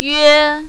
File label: "yue1", mandarin, female